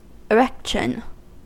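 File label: "erection", US English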